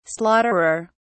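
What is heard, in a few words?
"slaughterer", British English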